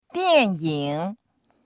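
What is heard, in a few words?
"dian4ying3", Mandarin Chinese; 电影; 映画; dictionary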